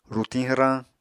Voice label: "rutiñ hwrañ", apatani

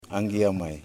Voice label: "Palm Cockatoo", Yimas

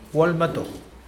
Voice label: "very old man", Mokilese

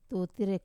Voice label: "tutira" whole, Wamesa

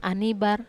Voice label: "anibar", Wamesa

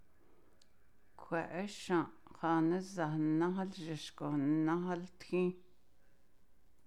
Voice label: How often does it rain here, Navajo

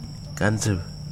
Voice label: "star", wahgi; lae unitech

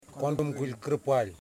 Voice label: "Ears", Yimas

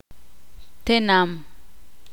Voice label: "Pueblo", Ixil

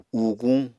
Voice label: "uuguñ", Apatani

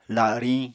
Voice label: "lariñ", Apatani

